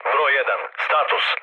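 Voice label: Serbian chat; speech; War Thunder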